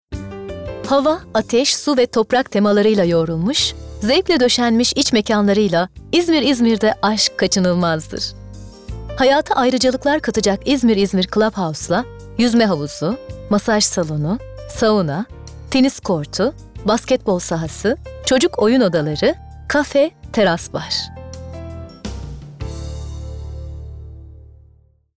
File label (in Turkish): Tanitim